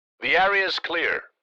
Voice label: Military radio voice the area is clear